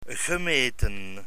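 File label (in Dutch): "gemeten", Dutch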